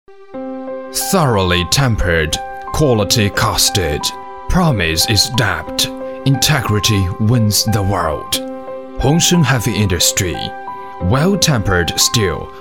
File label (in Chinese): 英男-稳重睿度品质